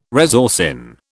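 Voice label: "resorcin", British English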